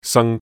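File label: Cantonese "saang3"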